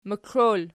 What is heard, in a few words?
"moklol", Archi